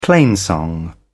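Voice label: "plainsong", British English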